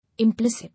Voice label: "Implicit", English